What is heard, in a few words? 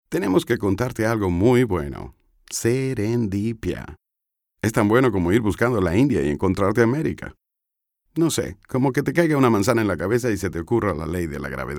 male latam venezuelan comercial; ideasonora